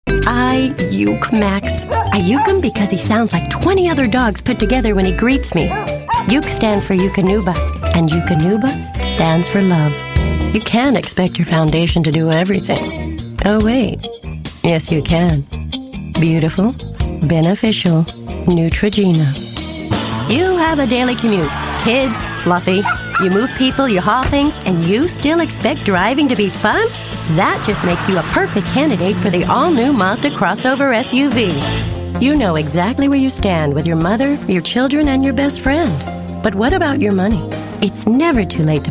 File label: commercial